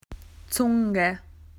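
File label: "tongue", Australian English; PictureDictionary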